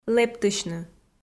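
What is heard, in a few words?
"лэптысьны" Komi, female; kpv audio dict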